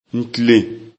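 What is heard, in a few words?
"ntilen", Bambara; animaux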